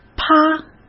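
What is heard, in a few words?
"趴", Mandarin Chinese; chinese-flashcards; hsk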